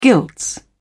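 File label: "gilts", British English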